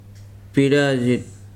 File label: "jewlery", Weri